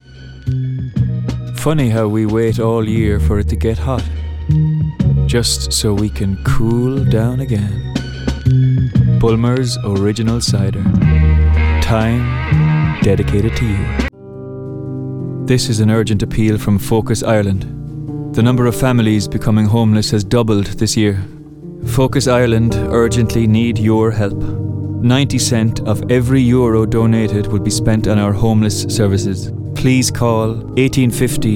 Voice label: Irish voice over artist, Kerry